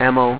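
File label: "ammo", english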